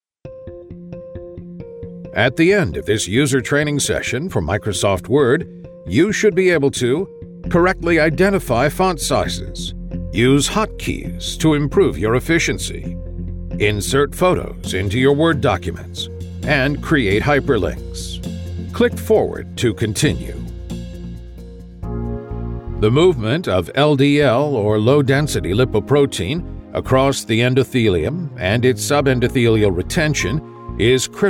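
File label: Elearning Voice, American Male Voice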